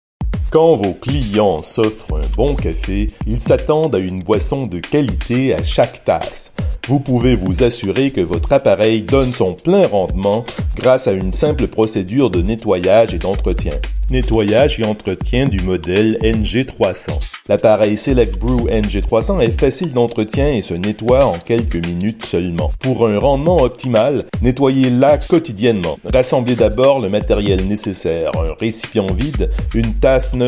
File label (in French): French; Cafe